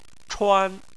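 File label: "chuan" first tone, Chinese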